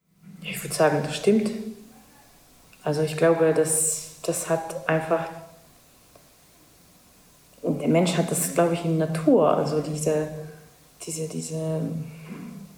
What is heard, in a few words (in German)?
estnisch